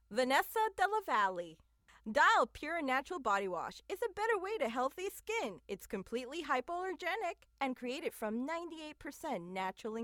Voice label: Commercial Bilingual Spanish

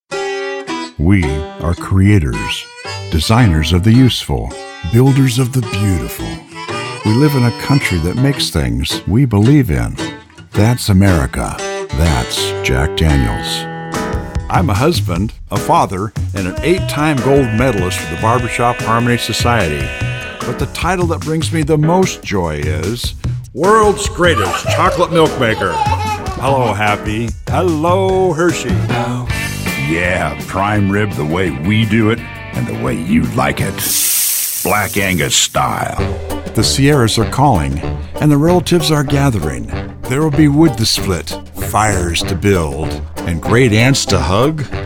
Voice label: Commercial

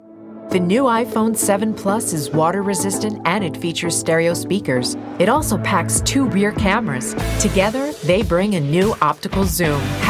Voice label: Commercial

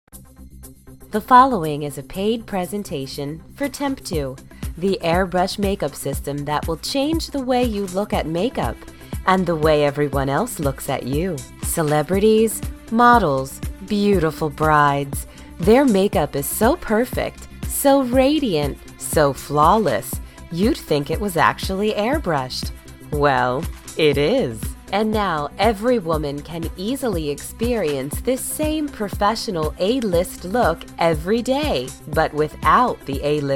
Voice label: narration

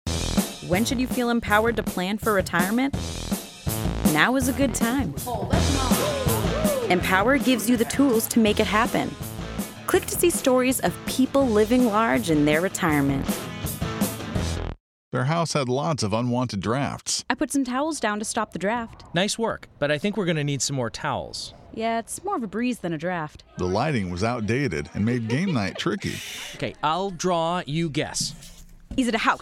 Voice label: Commercial

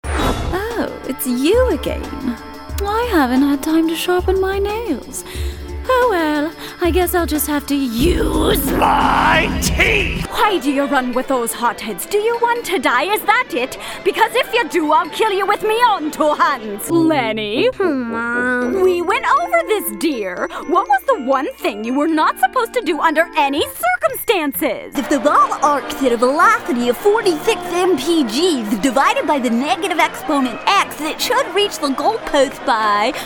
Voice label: animation

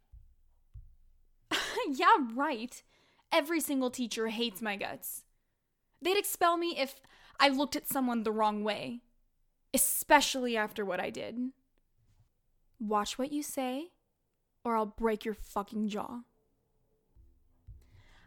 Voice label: Youth

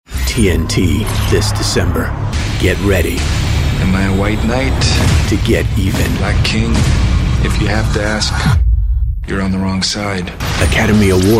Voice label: Promo, narration promo

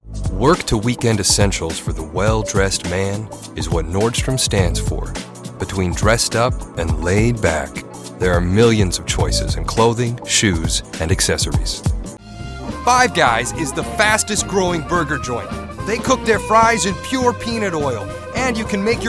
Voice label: Commercial

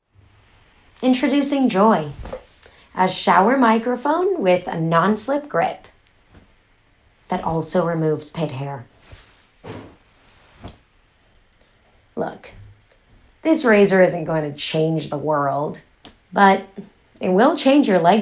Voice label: Commercial

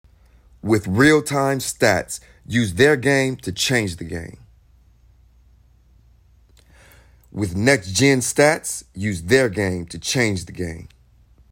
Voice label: Commercial

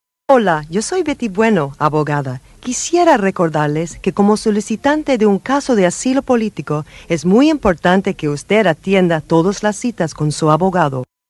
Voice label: Spanish

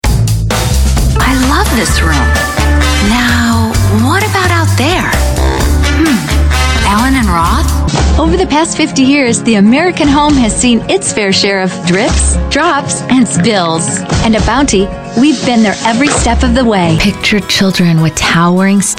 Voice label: commercial

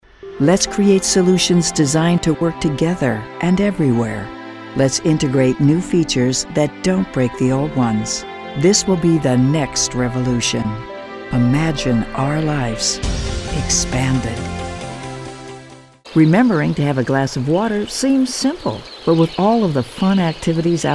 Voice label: narration

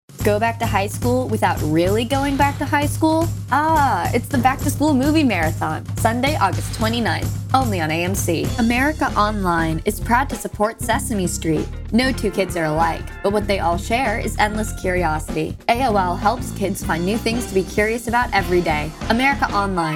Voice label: Youth

